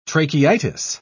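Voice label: "tracheitis", British English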